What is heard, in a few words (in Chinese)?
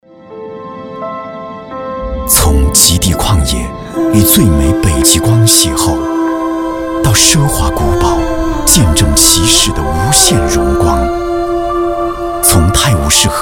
男, 广告, 高端大气商务国际化; 2021梦洁全球精选之旅